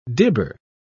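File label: "dibber", British English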